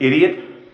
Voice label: "idiot", male